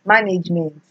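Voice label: "management", Nigerian Pidgin, female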